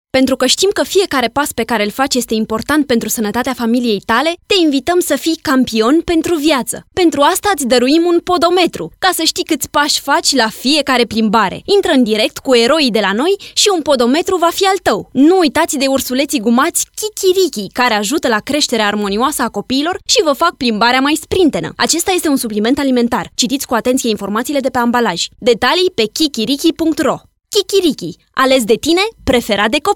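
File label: Romanian, General; GoLocalise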